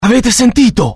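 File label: curious, Italian; dialogue; mohaa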